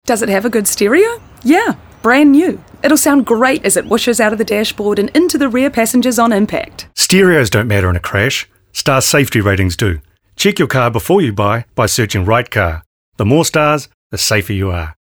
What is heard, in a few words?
reality stereo radio ad; 1 star